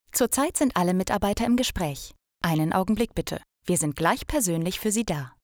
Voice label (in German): Telefonansage